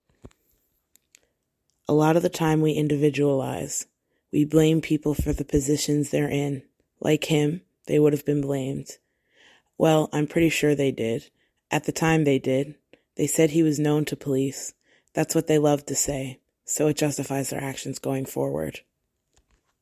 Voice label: testimonial